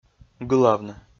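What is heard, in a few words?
"гылавны", Komi, male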